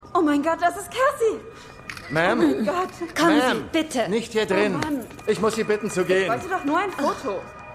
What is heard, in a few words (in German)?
Junge Frau Wachmann